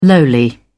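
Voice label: "lowly", British English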